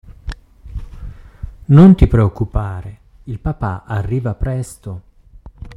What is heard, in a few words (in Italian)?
italiano